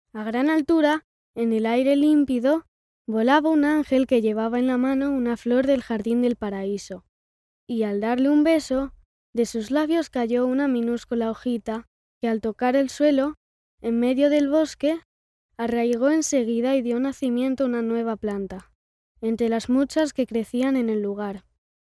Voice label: locutor nino, Spanish child voiceover